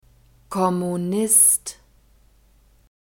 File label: "kommunist", german; masculine